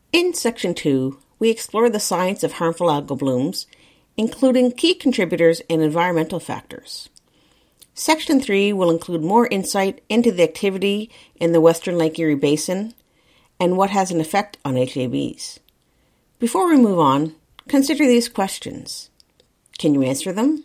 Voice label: narration review